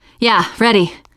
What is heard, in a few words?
answer ready, teen girl; player; survivor; voice